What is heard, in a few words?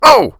PAIN; DN3D; Duke Nukem 3D